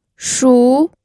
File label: "shu2", pinyin